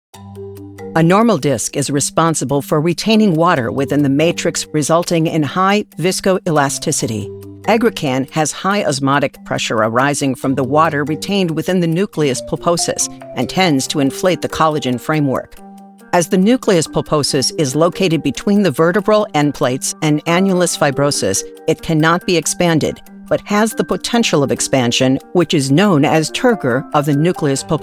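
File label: Medical, Professional Female Voiceover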